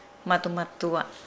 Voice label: "madumadua", Nukuoro